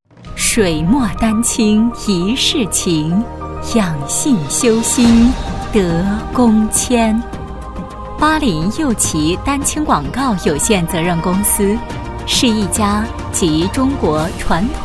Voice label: 女, 宣传; 广告公司

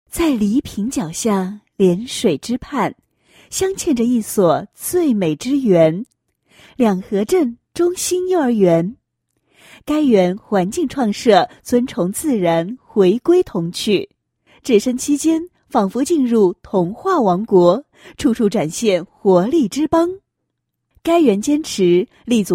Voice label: 女 解说词; 某镇中心幼儿园区角活动

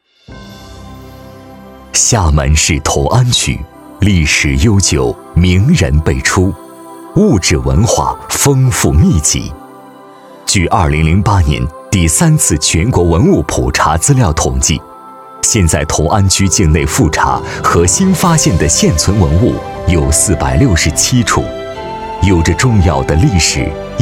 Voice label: 男, 政府, 文物, 大气饱满, 历史感

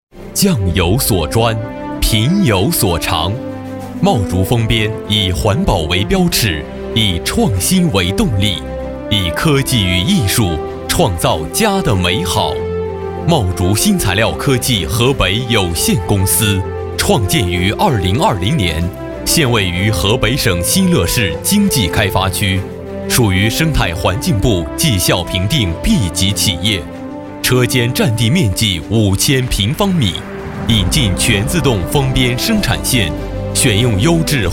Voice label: 男 专题 企业专题

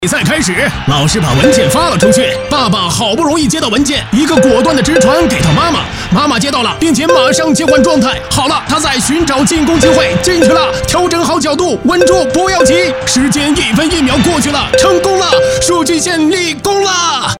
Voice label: 男 模仿 球赛解说; 黄健翔风格

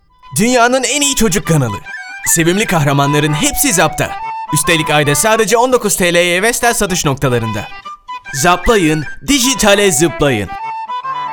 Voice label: 土耳其语男 欢快

